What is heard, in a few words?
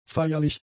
"feierlich", german; adjectives